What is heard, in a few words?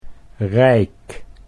"rijk", Dutch